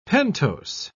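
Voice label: "pentose", British English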